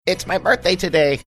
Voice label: saying its my birthday today funny